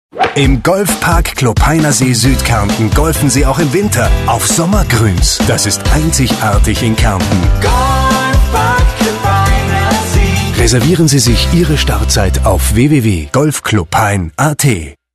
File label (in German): werbung, de-at